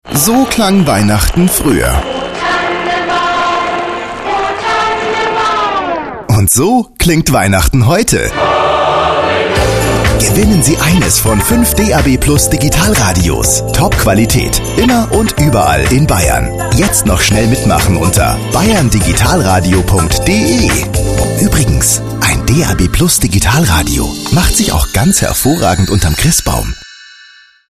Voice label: Spot Digitalradio; DAB

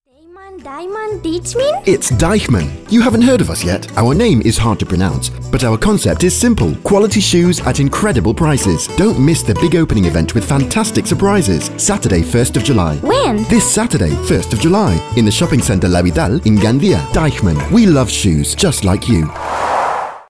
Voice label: Werbung; Deichmann

